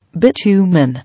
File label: "Bitumen", English